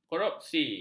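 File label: "korupsi", Malay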